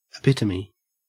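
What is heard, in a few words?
"epitome", Australian English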